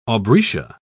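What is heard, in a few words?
"aubrietia", British English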